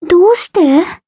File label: confused